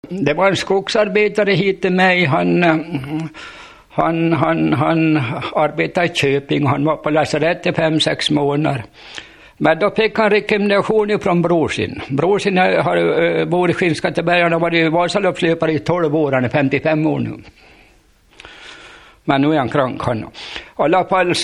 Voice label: Gunnilbo, dialekter; VSTM

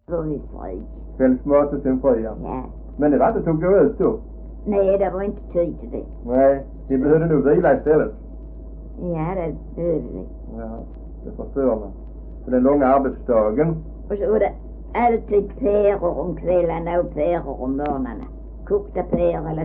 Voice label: Äsphult, dialekter; Folkminnen

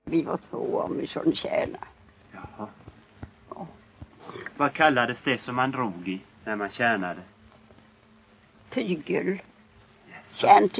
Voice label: Vireda SMÅL, dialekter; Folkminnen

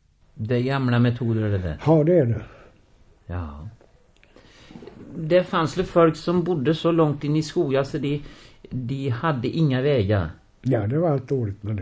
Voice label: Korsberga, dialekter; Svenska sagor; Folkminnen

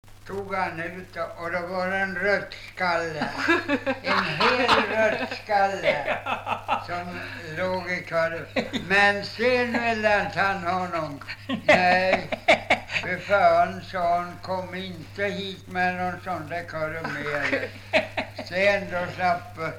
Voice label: dialekter; Folkminnen

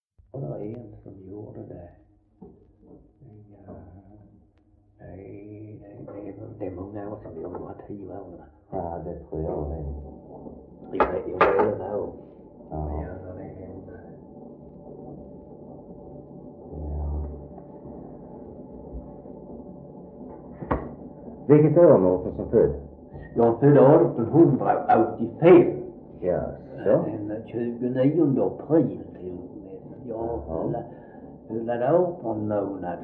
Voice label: Svensköp SK, dialekter; Folkminnen

